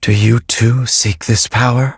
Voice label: level up, en; cookie run

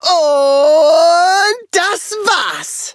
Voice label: victory, de; Cookie Run

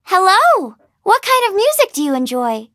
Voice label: touch, en; Cookie Run

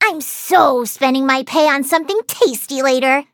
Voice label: eng menu idle; bacon roll; cookie run

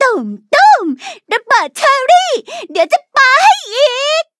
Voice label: level up, th; Cookie Run

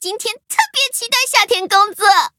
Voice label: upgrade, zh-hant; cookie run

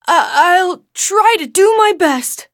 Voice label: battle en

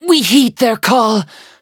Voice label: hit, en; Cookie Run

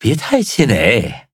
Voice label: defeat, zh-hant; Cookie Run

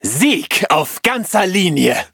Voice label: victory, de; Cookie Run

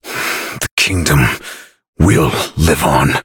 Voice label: defeat, en; Cookie Run

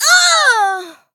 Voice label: hit rabbit, en

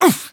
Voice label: eng ice pop attacked; cookie run

